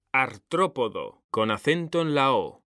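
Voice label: "artrópodo", Spanish, male; mekanta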